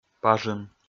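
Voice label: "пажын", Komi, male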